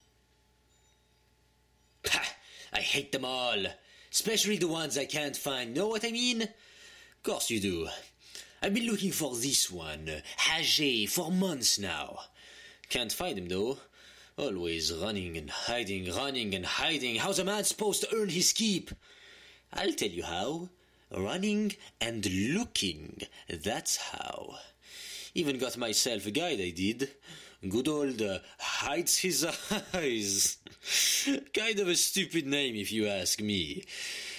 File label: breton french alternate